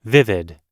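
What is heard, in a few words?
"vivid", English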